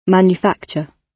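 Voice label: "manufacture", British English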